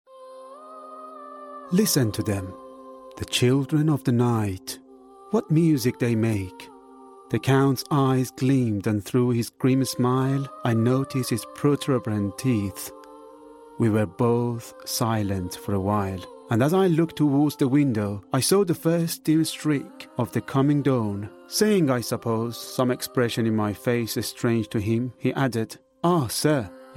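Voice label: Persian, accented English, audiobook; GoLocalise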